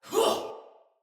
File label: Attack Sinecure Soldier Female